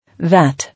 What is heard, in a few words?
"That", English